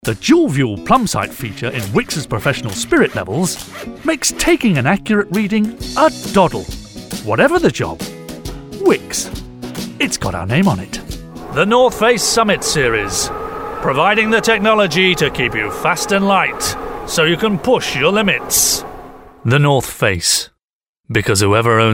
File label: English UK